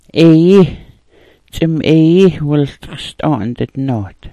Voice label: "ayii", smalgyax